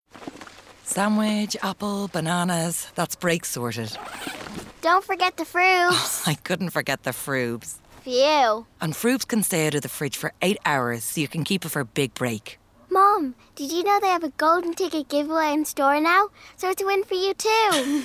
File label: female English Irish radio commercial; irish northern; yoplait frubes